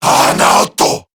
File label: Attack, Male